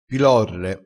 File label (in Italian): "pilorre", crespinese